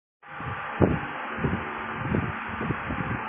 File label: Voice Note